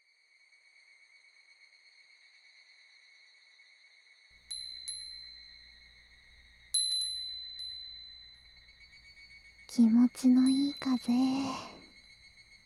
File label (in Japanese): BGMなし, ASMR